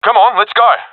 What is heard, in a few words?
request move, professional; vo; Counter Strike Model Pack; CS:GO